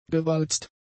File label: "gewalzt", German; adjectives